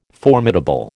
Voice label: "formidable", American